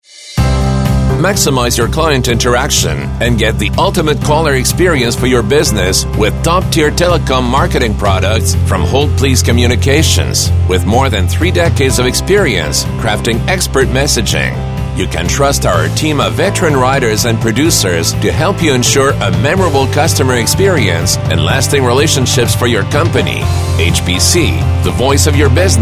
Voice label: English Demo